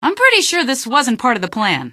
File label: question, female; npc; Garry's Mod; hl2